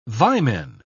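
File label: "vimen", English, British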